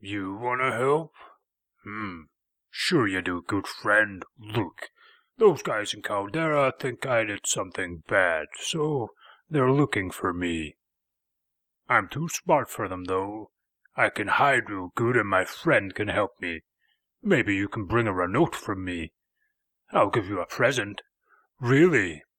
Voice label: orc, voice auditions